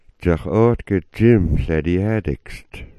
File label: "dzax'ootk", smalgyax